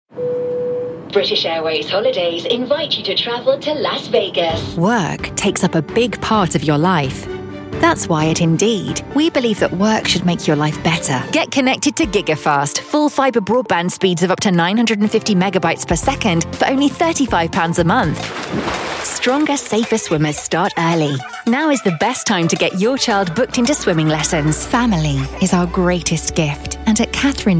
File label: commercial